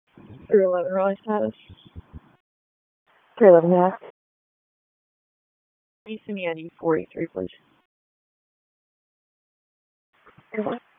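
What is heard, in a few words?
Police Northeast District Dispatch, p25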